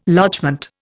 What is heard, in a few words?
"lodgement", Indian